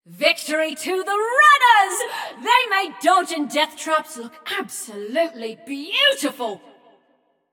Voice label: Runners Win, Announcer; Flux; Scraprun

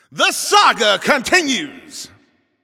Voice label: Announcer Overtime Starts

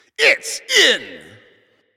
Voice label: Goal Scored, Announcer

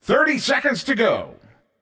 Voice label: 30 Secs Left, announcer

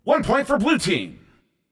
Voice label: Point Blue, Announcer

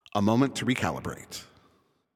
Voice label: Announcer Waiting For Players After Score

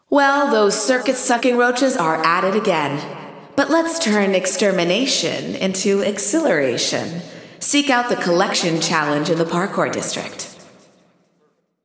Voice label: Announcing New Challenges; Roaches; GAIA

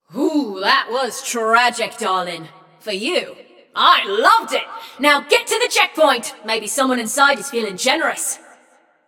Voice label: Scrap Run Go To Checkpoint, Announcer; Flux

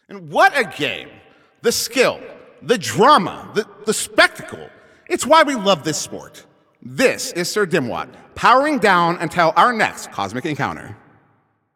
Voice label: Announcer Game Ends

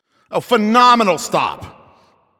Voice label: Announcer Goalie Save

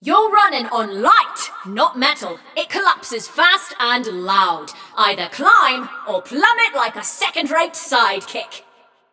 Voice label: Scrap Run General Reminder, Announcer; Flux

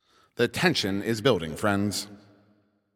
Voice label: 10 Seconds To Game Start, Announcer

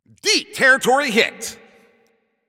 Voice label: Announcer Long Hit To Other Side

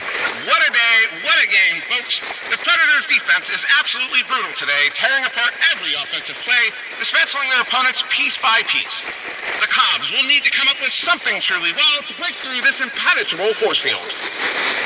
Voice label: Transmission Echoes

